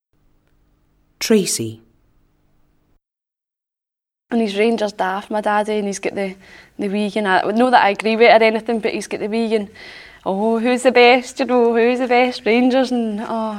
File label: Glasgow, scotspeak